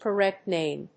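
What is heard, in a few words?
"correct name", English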